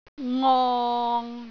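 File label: "ngong6", Cantonese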